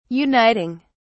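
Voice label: "uniting", British English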